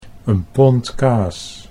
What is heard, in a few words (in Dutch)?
"een pond kaas", Dutch